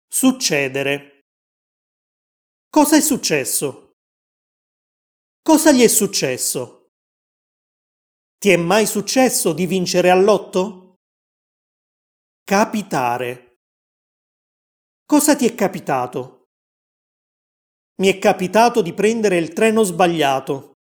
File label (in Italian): Easy Italian Cosa è successo; Italianglot; Easy Italian Pack